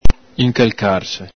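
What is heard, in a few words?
"incalcarse", Venetian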